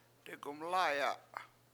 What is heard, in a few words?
"degumLAAya", Washo; married couple